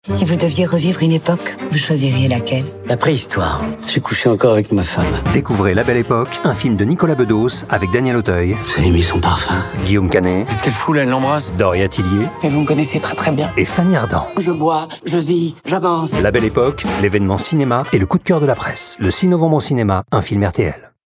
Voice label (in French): Bande annonce film; La belle époque